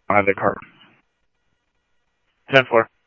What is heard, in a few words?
Scottsdale Police, scanner audio